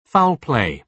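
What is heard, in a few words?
"foul play", British English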